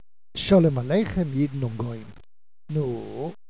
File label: yiddish